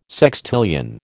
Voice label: "sextillion", American